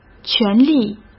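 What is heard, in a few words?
"全力", Mandarin Chinese; hsk; chinese-flashcards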